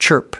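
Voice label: "chirp", US English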